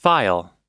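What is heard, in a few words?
"file", US English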